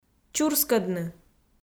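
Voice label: "чурскӧдны", Komi, female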